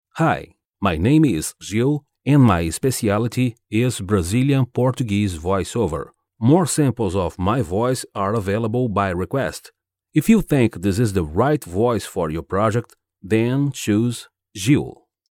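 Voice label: Brazilian Portuguese English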